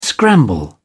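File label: "scramble", British English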